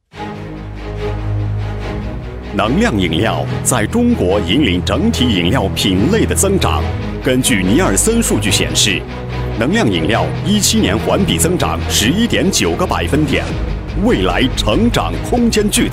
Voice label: 男99-专题-功能饮料

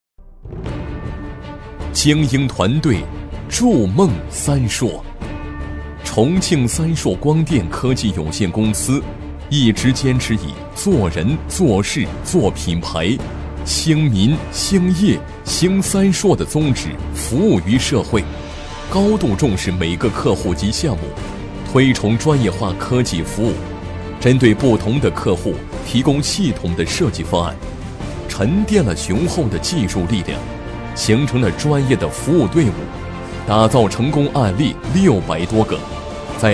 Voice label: 男20 专题 企业宣传; 光电; 三烁光电

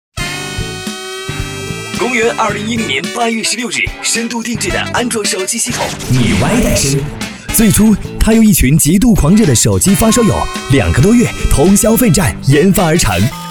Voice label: 男

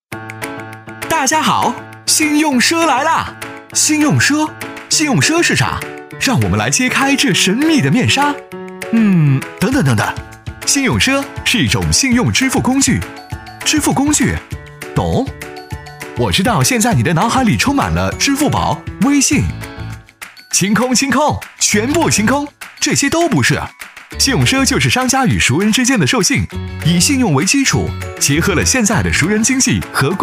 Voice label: 男, 年轻，活力; 飞碟说; 信用赊

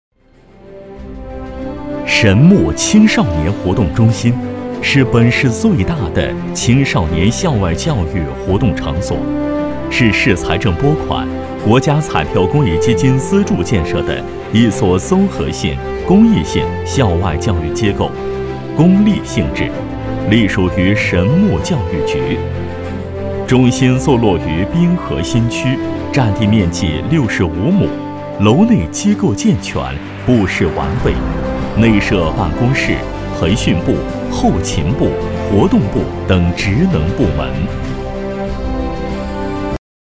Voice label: 男 专题 青少年宫

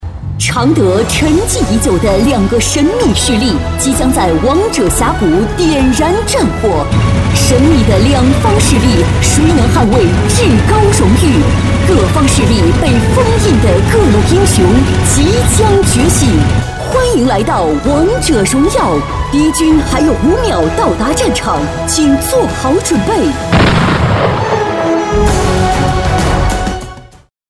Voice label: 女 游戏; 王者荣耀

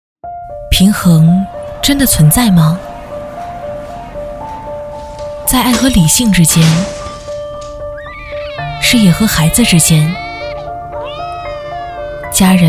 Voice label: 女 广告 感情叙述; 佰草集太极面霜

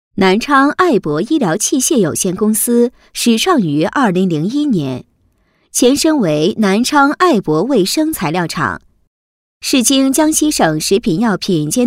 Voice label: 女 专题; 南昌爱博医疗器械公司

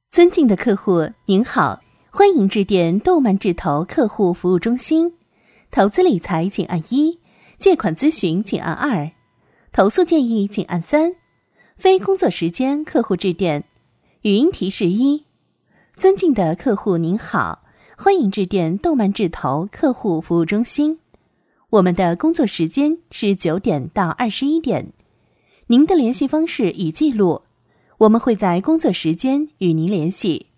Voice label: 女 彩铃 工作时间欢迎词

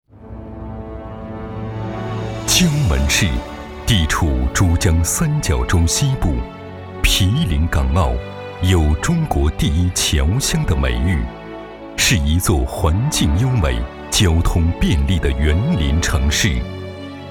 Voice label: 男, 专题; 江门市

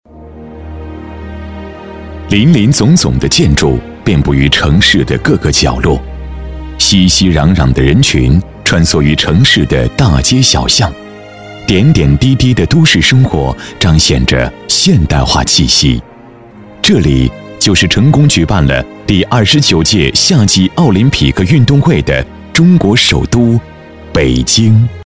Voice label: 男 专题 睿智干练; 体育中心

